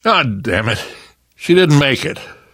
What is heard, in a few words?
grief female generic, survivor voice